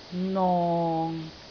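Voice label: "nong6", Cantonese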